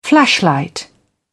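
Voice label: "flashlight", British English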